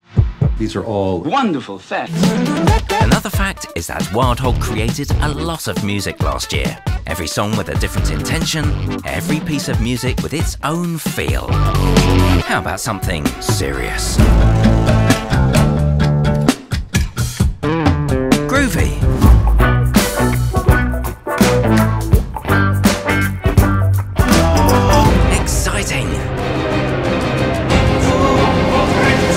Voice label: english british